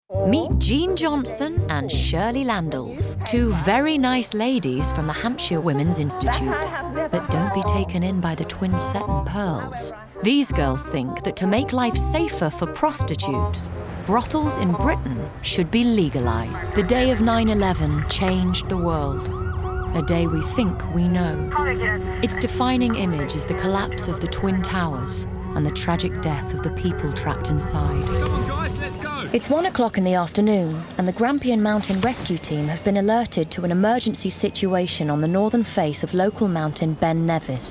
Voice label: narrative